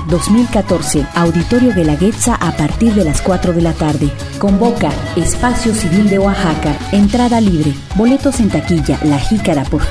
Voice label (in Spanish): Spot con Efecto Voces; por la Justicia en Ayotzinapa